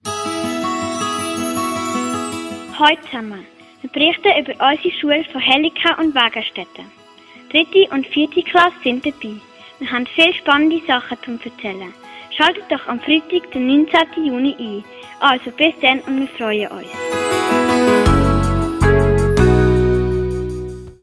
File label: Jingle; Hellikon